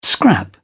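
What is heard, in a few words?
"scrap", British English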